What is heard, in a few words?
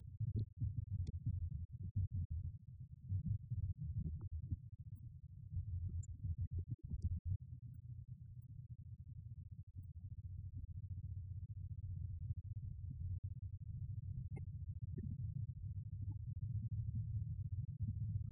radio banter; The Clifford Ball